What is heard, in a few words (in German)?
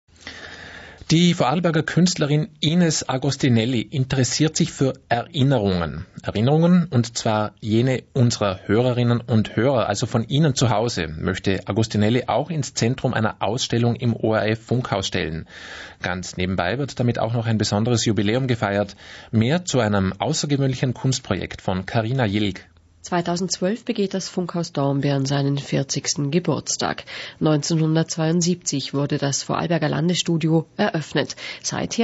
Hörfunk Aufruf; ORF Hörfunk Aufruf 27 Dez 2011